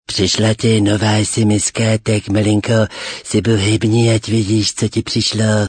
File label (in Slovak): sms hlásky cz